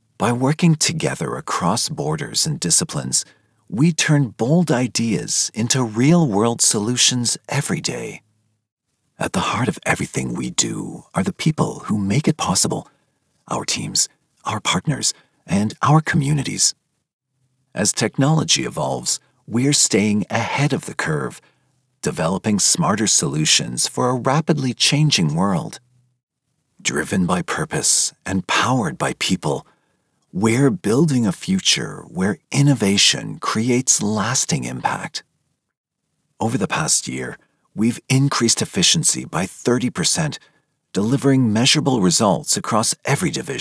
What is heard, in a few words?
Corporate